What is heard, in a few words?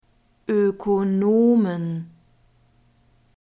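"Ökonomen", German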